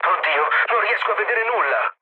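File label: Italian searchlite mood low, speech; War Thunder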